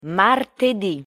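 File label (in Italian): "martedì", Italian pronunciation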